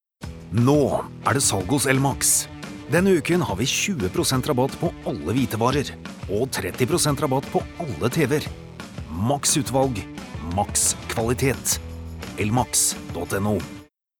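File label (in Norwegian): no reklame selgende, Norwegian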